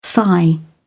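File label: "thigh", British English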